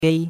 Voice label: "gei1", chinois; Cours de Chinois

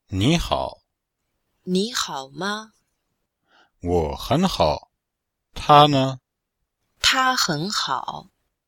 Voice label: dialg, chinois; Cours de Chinois; Niveau 1: Introduction et phonétique: Le ma

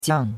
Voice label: "jiang4", chinois; Cours de Chinois

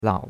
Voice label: "lao3", chinois; Cours de Chinois

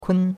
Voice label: "kun1", chinois; Cours-de-Chinois

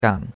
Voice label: "gan3", chinois; Cours-de-Chinois

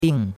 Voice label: "ding4", chinois; Cours de Chinois

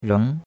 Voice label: "reng2", chinois; Cours-de-Chinois

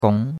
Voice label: "gong2", chinois; Cours-de-Chinois

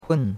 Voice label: "hun4", chinois; Cours-de-Chinois

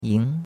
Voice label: "ying2", chinois; Cours-de-Chinois

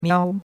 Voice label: "miao1", chinois; Cours de Chinois

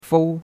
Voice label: "fou1", chinois; Cours-de-Chinois

